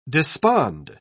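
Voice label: "despond", British English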